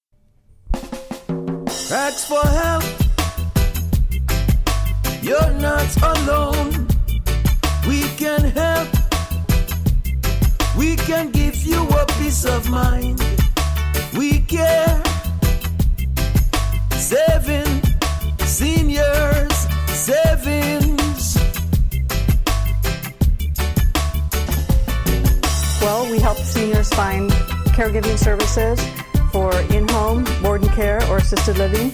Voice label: Theme song Radio commercial